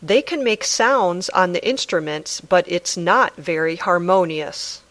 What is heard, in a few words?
Dictation